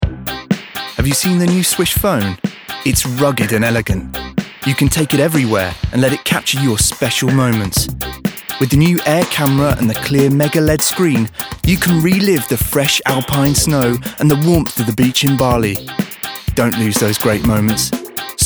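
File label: British fresh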